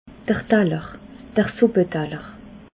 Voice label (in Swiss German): "daller", alsace